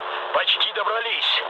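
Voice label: near waypoint, mood med, Russian; War Thunder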